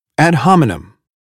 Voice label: "ad hominem", American English